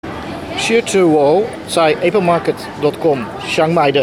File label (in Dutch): Ik bestel bij Epermarket in het Chinees